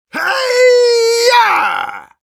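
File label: battlecry Russian; Engineer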